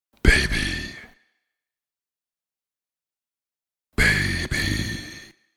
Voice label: The word ‘Baby’ spoken in deep, husky male voice x2.; Exclamations